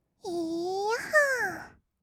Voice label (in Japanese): いーやっはーっ, kawaii voice; 音ゲー